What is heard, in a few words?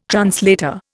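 "translator", Indian English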